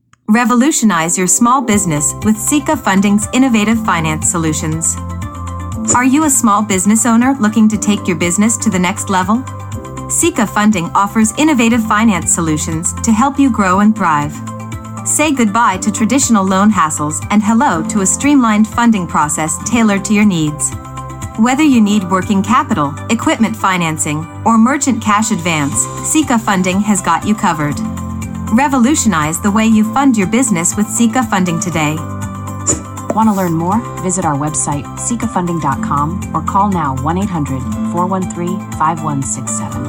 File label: Radio Commercial